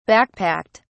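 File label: "backpacked", British English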